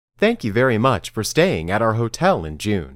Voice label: Dictation